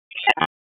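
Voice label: Police Spec Ops Tac, radio; Raleigh; wake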